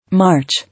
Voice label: "March", English